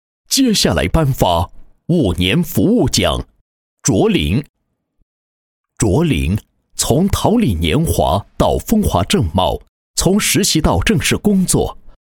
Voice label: 男 颁奖 五年 十年颁奖词

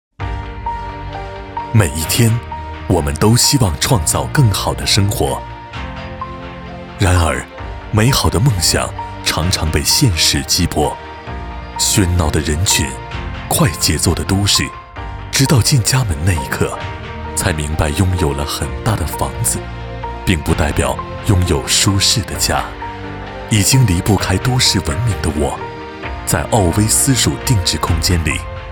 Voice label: 男 旁白, 国语男声配音; 希望创造更好的生活; 交广主播; 澳威

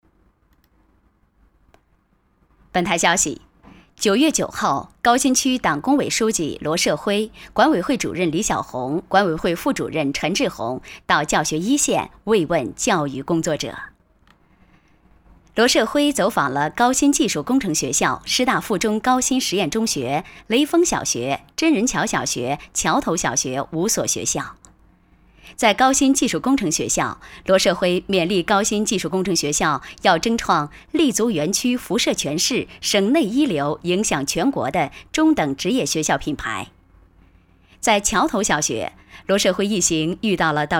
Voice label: 女 省台新闻样音, 国语女声配音

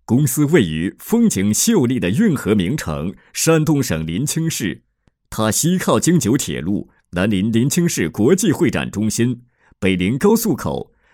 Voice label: 家具公司, 国语男声配音, 稳重专题; 喜得龙公司

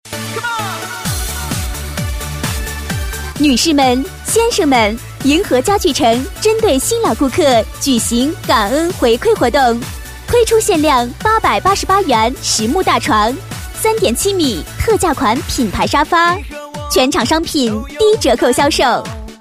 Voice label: 促销 家具, 国语女声配音; 年轻广告

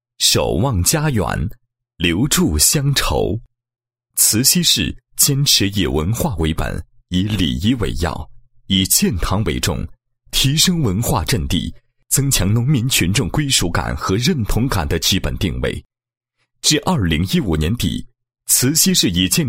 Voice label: 男 宣传片 文化礼堂宣传片

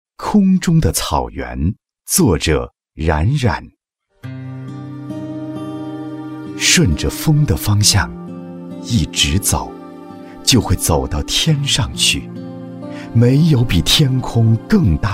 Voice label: 男 散文诗; 印象特克斯

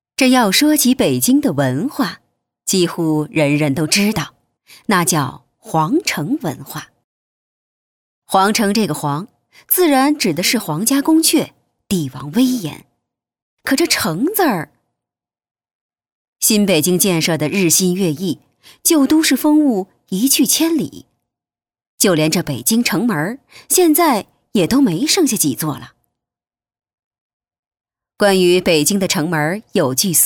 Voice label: 女 专题 北京话, 国语女声配音; 城门

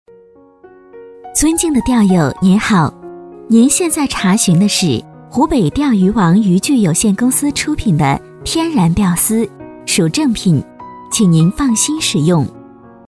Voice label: 女 广告 彩铃 尊敬的钓友

